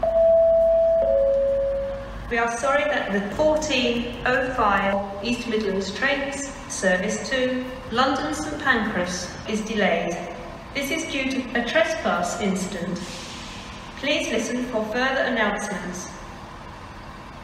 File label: Delayed Announcement